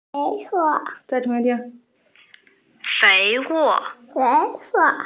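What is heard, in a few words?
"fei wo" Chinese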